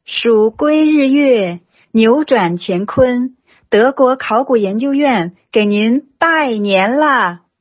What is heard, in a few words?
Chinese